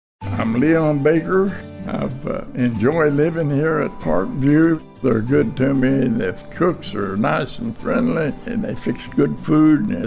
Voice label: testimonial